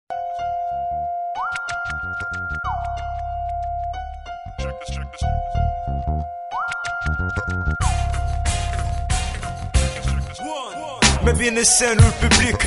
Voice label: Arab MIC FreeStyle; hiphop